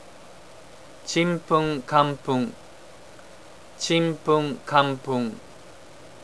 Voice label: gibberish; Computer; japanese